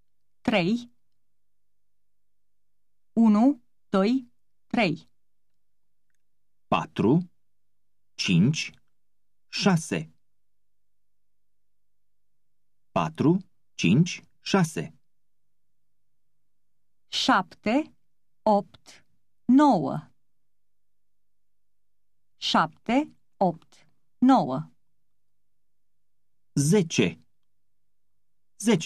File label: Romanian, Numbers; Language; Languages; Berlitz Eastern European